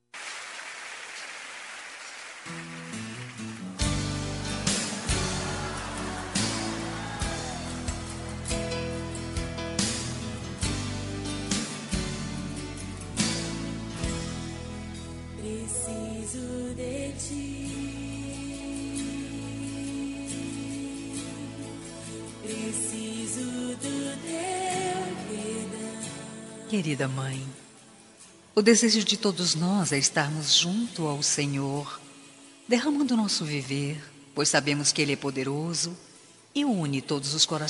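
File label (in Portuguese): mãe gospel female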